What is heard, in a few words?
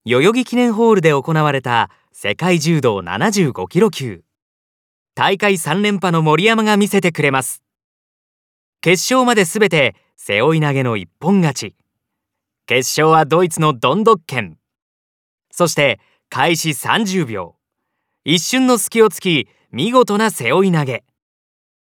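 program narration, Japanese; 番組ナレーション②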